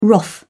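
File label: "wrath", English, British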